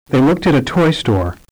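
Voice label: They looked at a toy store Compound, Stress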